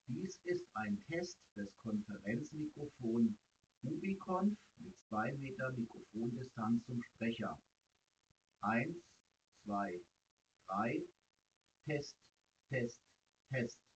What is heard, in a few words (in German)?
Test 2m ubiconf, 2 Meter Abstand zum Sprecher; Ubiconf Mikrofon mit USB Kabel getestet